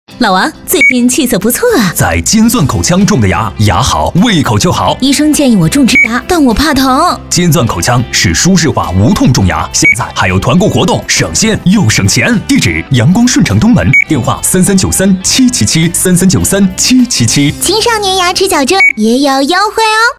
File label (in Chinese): 女 广告 生活亲切 对播类